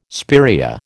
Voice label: "spiraea", American